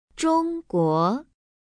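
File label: "zhongguo", Chinese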